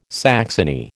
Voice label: "saxony", American